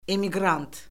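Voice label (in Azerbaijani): "emiqrant", Azerbaijani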